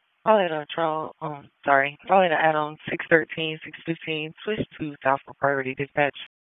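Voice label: Police District Dispatch, p25; Raleigh Police Southwest District Disp; wake p25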